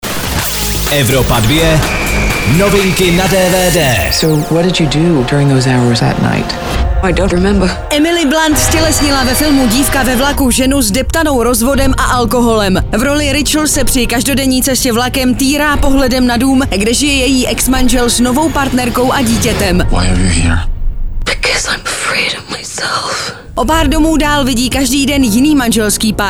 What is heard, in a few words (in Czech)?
dvd news; evropa2; divka ve vlaku